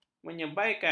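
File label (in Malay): "menyebaikan", Malay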